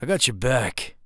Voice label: Acknowledge, Human Male; zps; HumanMale-01

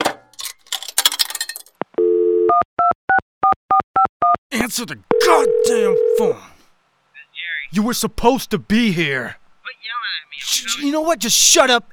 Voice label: Phone, Human Male; zps; HumanMale-01

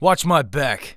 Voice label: Cover, Human Male; zps; HumanMale-01

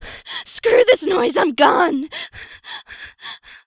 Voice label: Panic; zps

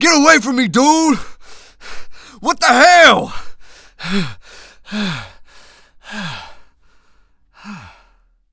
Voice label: Panic; zps; Humans; HumanPunk

